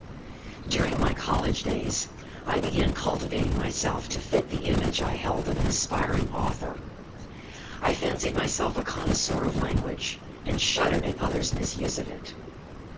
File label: male noisy